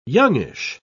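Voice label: "youngish", British English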